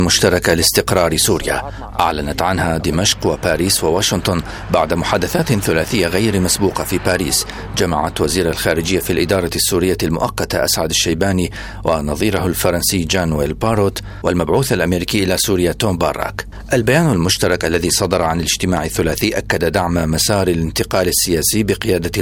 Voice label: Arabic